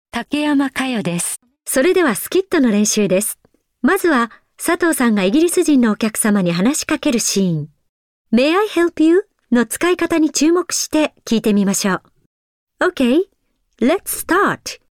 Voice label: female radio education